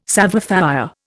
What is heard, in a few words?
"savoir faire", Indian